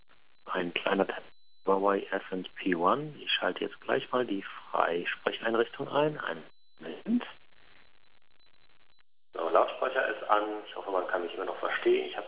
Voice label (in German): Freisprechen, voicemail messages